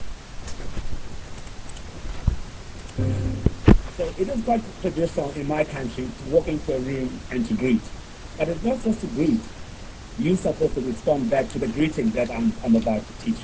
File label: english